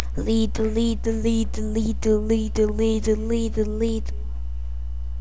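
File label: "lead", spoken word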